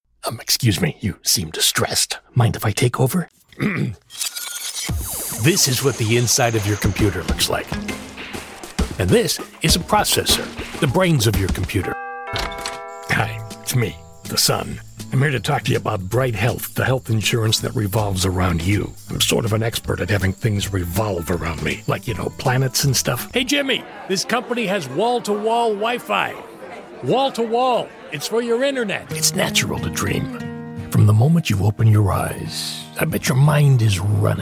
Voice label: CONVERSATIONAL

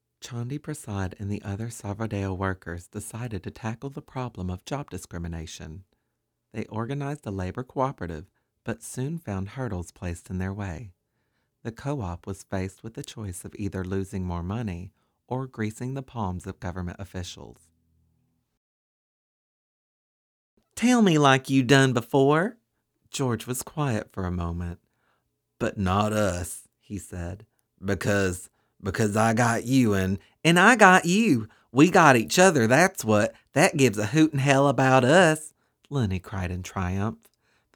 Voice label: Audiobook

